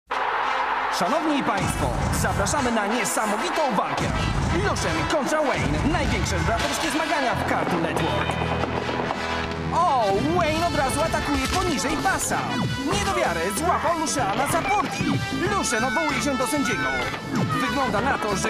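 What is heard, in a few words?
Polish commentary; GoLocalise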